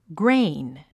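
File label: "grain", English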